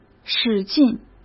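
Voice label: "使劲", Mandarin Chinese; chinese-flashcards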